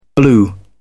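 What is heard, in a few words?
"blew", British English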